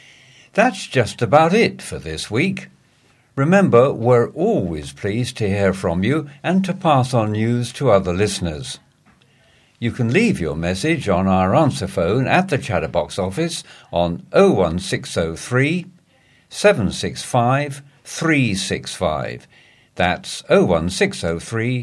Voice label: Closing Announcement